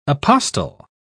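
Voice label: "apostil", British English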